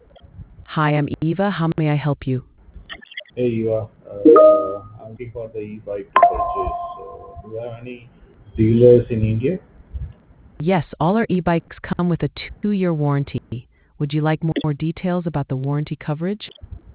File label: conversation